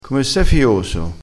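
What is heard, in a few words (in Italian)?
"fioso", vocabolario dialettale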